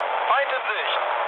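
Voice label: German enemy detected mood low, speech; War Thunder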